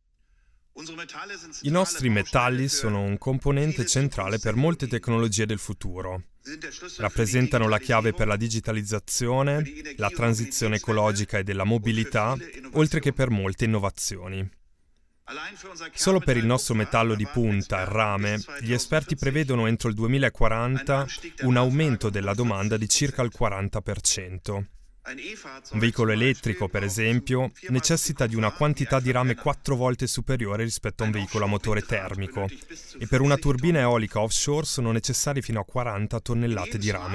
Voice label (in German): nachverdolmetschung de it, voice over